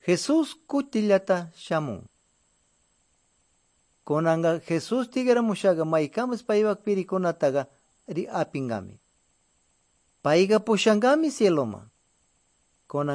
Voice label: good news quichua de pastaza; quechua; bible